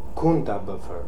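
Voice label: "Kundabuffer", pronunciations; Fourth Way; Beelzebub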